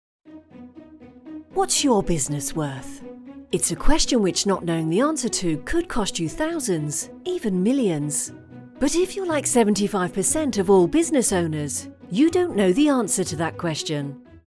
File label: Corporate, British voice